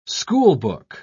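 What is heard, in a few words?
"schoolbook", British English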